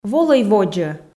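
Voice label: "волӧй водзӧ", Komi, female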